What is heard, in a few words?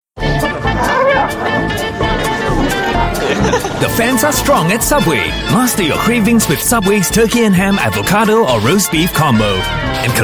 Asian English Promo, English Singaporean Male; Subway Star Wars